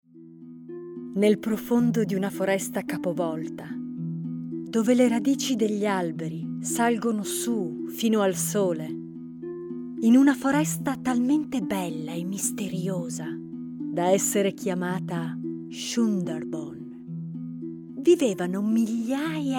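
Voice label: Italian, Narrative; voice demos; GoLocalise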